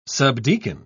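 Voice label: "subdeacon", British English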